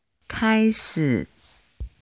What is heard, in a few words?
chinese pronunciation zhi chi shi ri